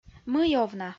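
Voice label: "Мый овна", Komi, female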